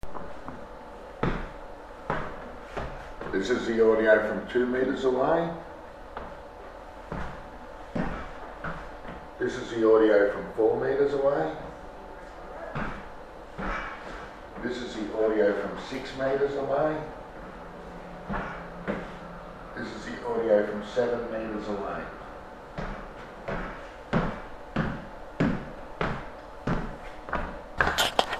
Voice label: Magnetic Black Box Voice Recorder Sample Audio